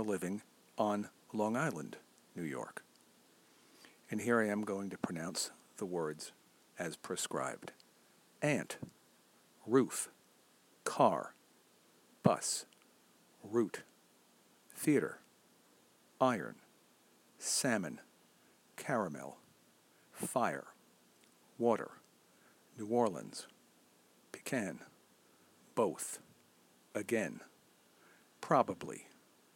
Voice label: accent challenge